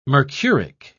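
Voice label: "mercuric", British English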